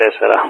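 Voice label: Speech, Greek, 4 calm; Ka-50; Wingman; DCS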